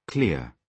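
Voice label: "clear", British English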